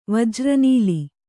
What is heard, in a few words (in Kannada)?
"ವಜ್ರನೀಲಿ", Kannada